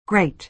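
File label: "grate", American English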